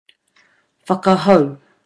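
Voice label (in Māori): "whakahou", Māori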